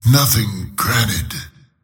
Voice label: lasthit pain; slyrak; dota

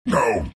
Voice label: treant pain

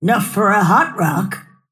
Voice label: lasthit pain; snapfire

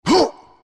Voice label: pain; dota; furion

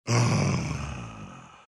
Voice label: tiny happy; dota

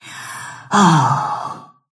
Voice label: happy; snapfire

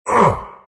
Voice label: pain; Dota 2; Visage

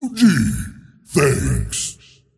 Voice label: tiny thanks; dota

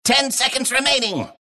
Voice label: announcer count; dlc clockwerk

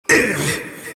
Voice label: monkey crown pain; dota; monkey king

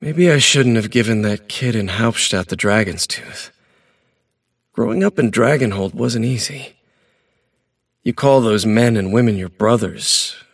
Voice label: monologue; davion; dota

